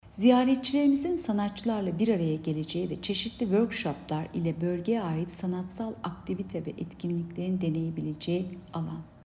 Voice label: turkce